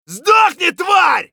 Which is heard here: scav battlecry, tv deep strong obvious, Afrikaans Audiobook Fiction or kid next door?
scav battlecry